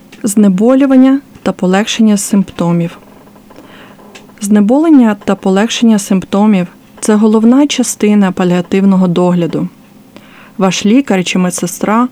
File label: Palliative Care Ukrainian Pain and symptoms management